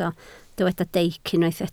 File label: "deic", Welsh